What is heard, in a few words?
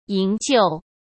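"营救", Mandarin Chinese; chinese flashcards; hsk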